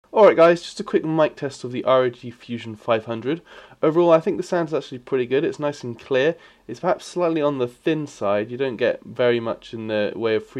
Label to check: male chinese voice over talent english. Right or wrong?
Wrong